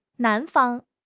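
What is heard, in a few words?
"nan2 fang1", Mandarin Chinese; HSK3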